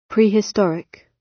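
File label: "prehistoric", British English; Time, history; Thời gian, lịch sử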